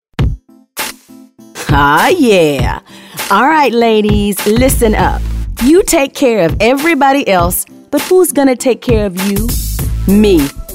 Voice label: cool jazzy